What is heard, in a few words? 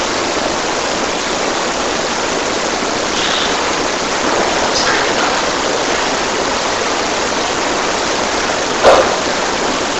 Basement Unexplained Low Whisper (Straighten Up) Amplified